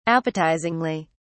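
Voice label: "appetizingly", British English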